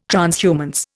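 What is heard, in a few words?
"transhumance", Indian English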